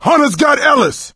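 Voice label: pounced, voice, male; Left 4 Dead 2; coach; hunter; ellis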